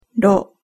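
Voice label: "ro", Japanese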